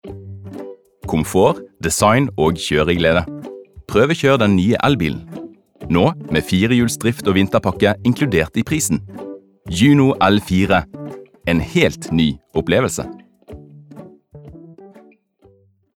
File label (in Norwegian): no reklame bergensk